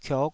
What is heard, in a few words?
"kiok5", taiwanese syllables